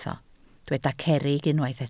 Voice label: "cerrig", welsh

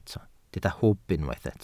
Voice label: "hwb", Welsh

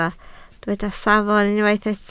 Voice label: "safon", Welsh

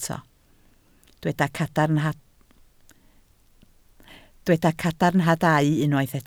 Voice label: "cadarnhadau", Welsh

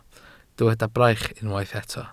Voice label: "braich", Welsh

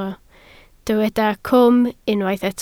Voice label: "cwm", Welsh

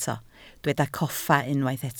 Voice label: "coffa", Welsh

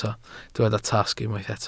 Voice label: "tasg", Welsh

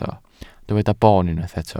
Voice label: "boon", Welsh

